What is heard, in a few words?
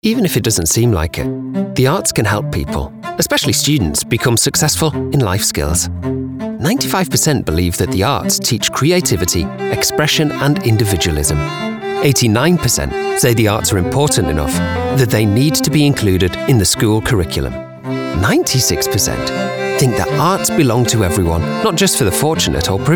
documentary